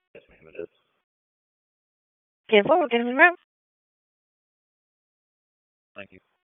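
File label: Raleigh Police Northeast District Dispatch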